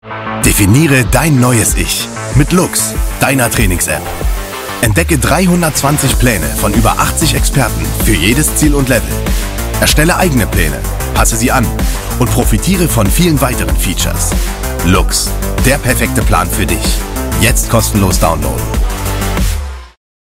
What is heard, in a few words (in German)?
Werbung Fitness Planer; LOOX Fitness Planer